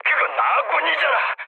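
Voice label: Korean player friendly fire mood low, speech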